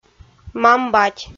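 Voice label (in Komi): "мам-бать", Komi, female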